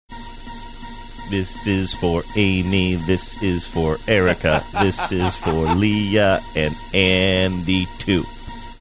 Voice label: saying in rhythm bossa nova hold music